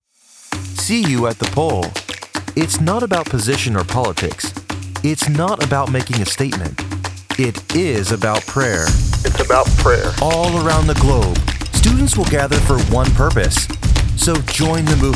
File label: Radio Spot